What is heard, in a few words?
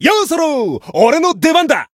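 Voice chant, Japanese; dennie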